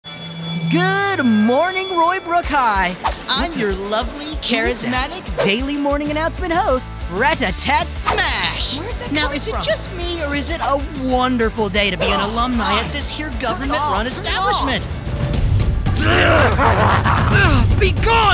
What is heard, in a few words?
Animation & Character, young male